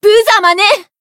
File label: finish, jp; sherinu